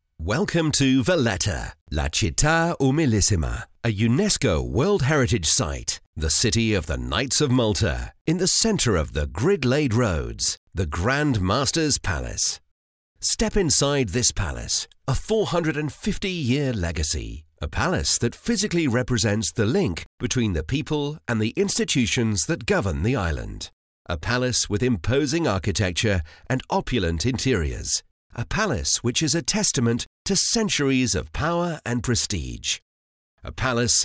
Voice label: english british explainer videos